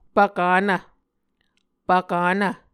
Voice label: "pvkanv", Muscogee

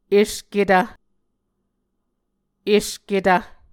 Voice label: "esketv", Muscogee

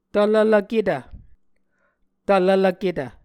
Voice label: "tvlvlvketv", muscogee

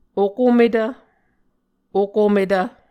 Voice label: "okometv", Muscogee

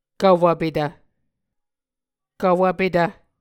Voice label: "kvwvpetv", Muscogee

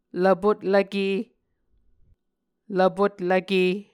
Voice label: "lvpotlvke", Muscogee

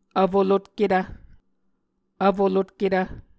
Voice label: "vfolotketv", Muscogee

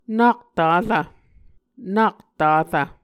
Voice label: "nak tarv", Muscogee

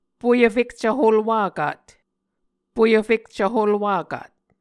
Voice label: "poyvfekcv holwakat", Muscogee

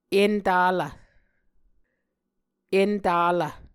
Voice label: "entalv", Muscogee

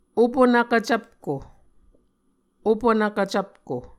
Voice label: "opunvkv cvpko", Muscogee

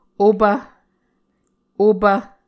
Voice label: "opv", Muscogee

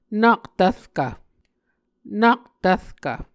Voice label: "nak tvrkv", Muscogee

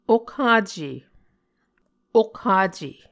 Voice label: "okhace", Muscogee

